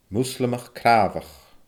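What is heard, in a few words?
"Muslamach craabhach", Scottish Gaelic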